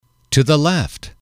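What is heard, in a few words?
to the left, male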